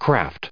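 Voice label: "craft", US English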